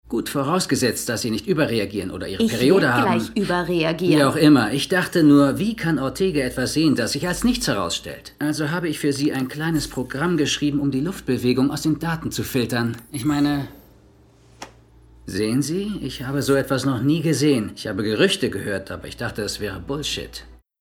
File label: male german acting; ideasonora